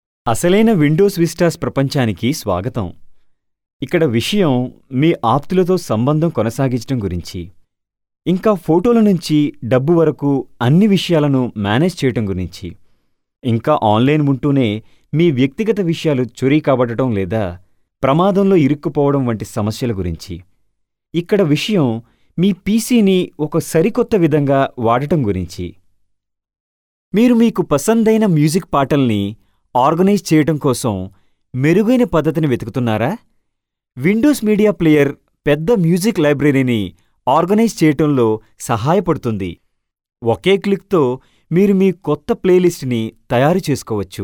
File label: Telugu Male